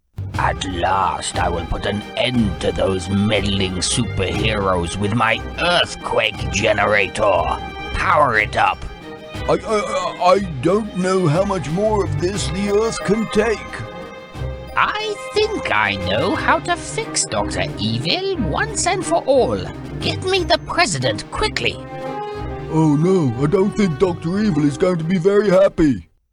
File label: Animation Video Game